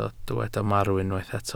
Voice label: "marw", welsh